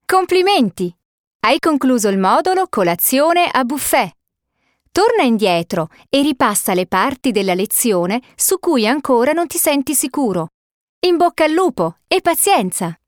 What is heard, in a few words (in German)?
it elearning, italienisch; sprecher